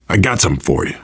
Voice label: alert give item, survivor voice biker; Left 4 Dead 2